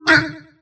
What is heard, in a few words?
pain; sniper